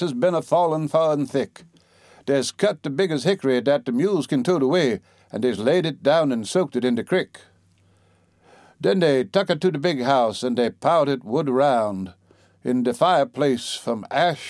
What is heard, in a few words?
a back log song, humour and dialect